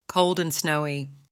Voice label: female Cold and snowy